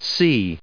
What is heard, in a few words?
"seas", us